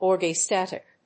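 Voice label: "orgiastic", English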